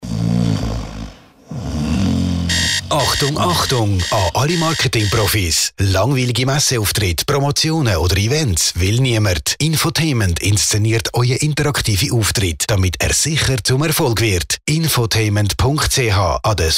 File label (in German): Radiospot